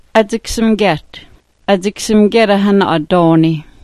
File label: "adziksmgyet", smalgyax